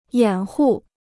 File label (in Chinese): "掩护", Chinese